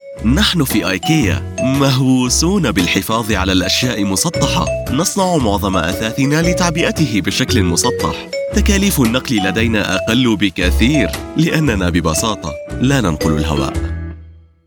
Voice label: Commercial Friendly Conversational Fresh Standard Arabic; Ikea